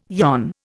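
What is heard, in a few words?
"yon", Indian English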